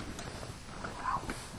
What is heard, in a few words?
Whisper